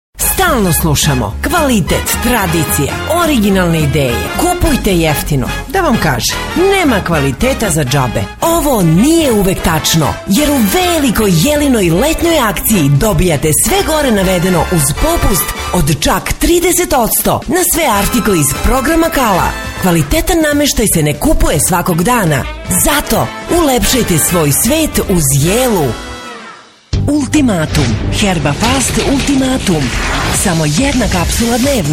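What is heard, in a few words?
Serbian female commercial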